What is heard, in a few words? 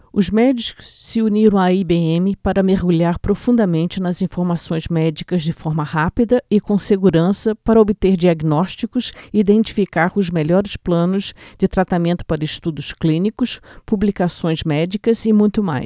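Brazilian Portuguese sample 16 kHz; watson stt; bluemix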